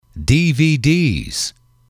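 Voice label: "dvds", english, male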